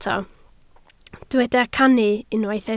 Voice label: "cannu", Welsh